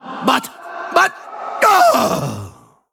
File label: Male Ethereal Evil Fight Hero Death